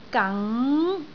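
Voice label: "gang2", Cantonese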